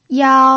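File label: "yao1", Mandarin Chinese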